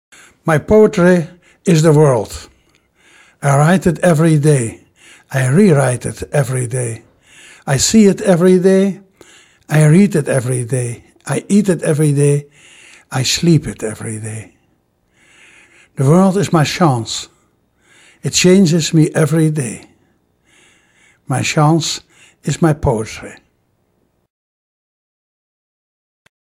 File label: sound poetry